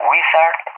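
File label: "Wizard", English; CallSign; DCS; Allied Flight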